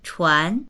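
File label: "chuan2", Mandarin Chinese; 船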